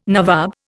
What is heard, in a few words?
"nawab", Indian